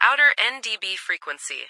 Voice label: Outer NDB Frequency, ATIS

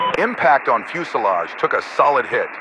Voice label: Radio pilot Im Hit

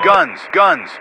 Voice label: Radio pilot Launch Guns

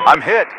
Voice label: Radio pilot Im Hit

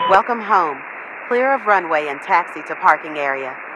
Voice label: Radio atc Safe Landing Player

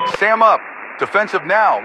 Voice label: Radio pilot Warning SAM Launch

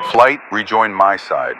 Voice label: Radio player Wingman Rejoin

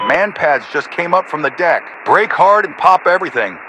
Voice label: Radio pilot Warning MANPADS

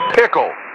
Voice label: Radio pilot Launch Pickle

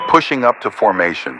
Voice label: Radio pilot Wingman Rejoin

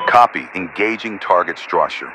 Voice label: Radio pilot Wingman Engage Strike

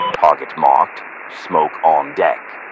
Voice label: Radio jtac Smoke OK

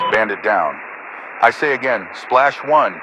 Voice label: Radio pilot Kill Air

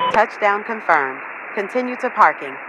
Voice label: Radio atc Safe Landing Player

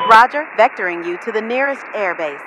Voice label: Radio atc Require Nearest Airbase

